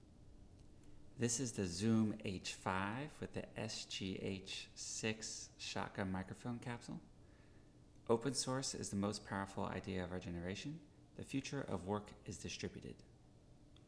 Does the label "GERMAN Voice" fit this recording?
No